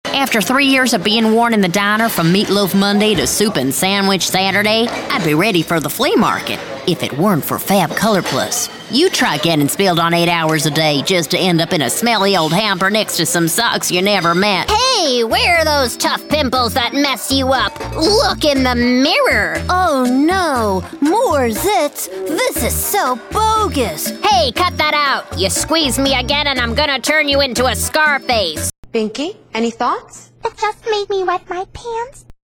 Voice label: english american animation

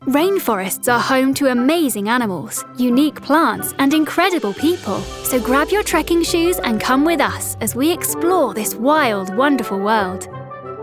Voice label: english british documentaries

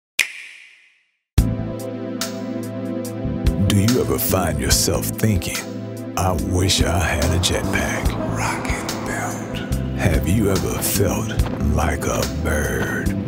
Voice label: english american video games; dublador profissional afro-americano que fala inglês

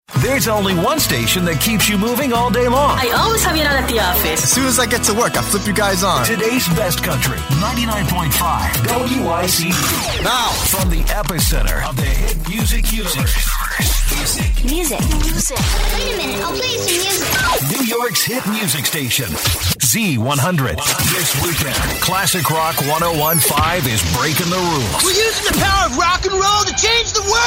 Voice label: english american radio imaging

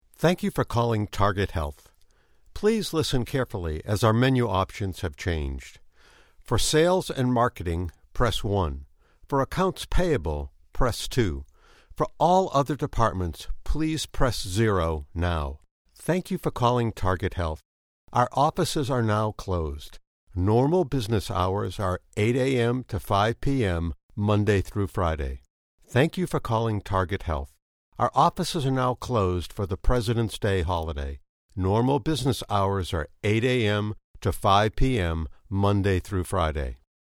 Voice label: english american ivr

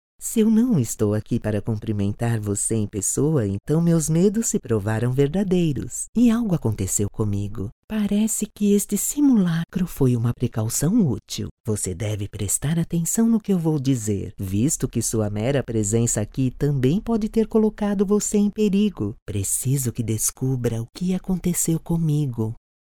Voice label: portuguese brazilian video games

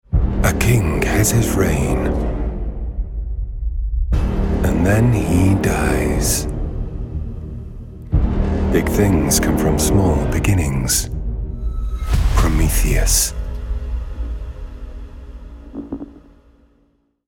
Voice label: english british video games

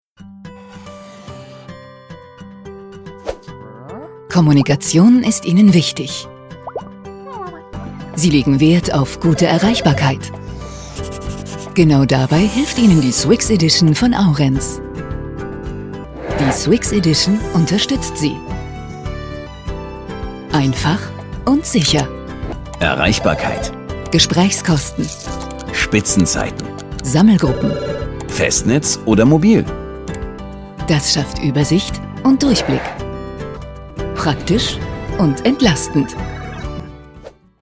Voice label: German corporate videos

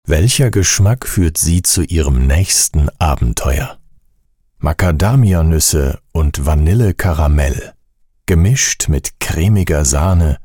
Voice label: german commercial; actor de doblaje profesional

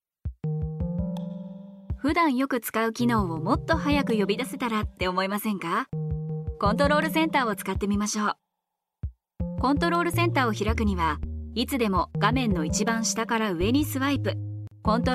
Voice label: japanese training e-learning

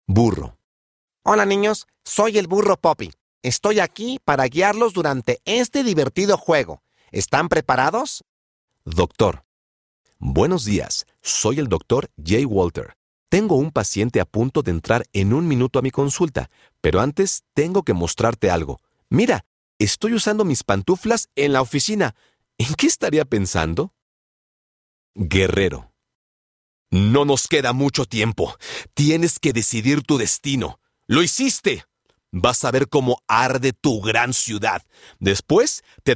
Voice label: spanish latin american impersonations; Spanisch (Mexikanisch); Mexiko

